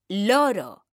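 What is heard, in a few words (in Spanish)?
"LORO", female; mekanta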